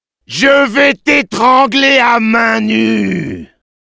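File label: Demoman taunts, French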